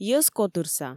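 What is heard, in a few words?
"йӧзкотырса", Komi, female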